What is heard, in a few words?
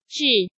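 "Zhì", Chinese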